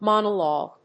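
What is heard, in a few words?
"monologue", English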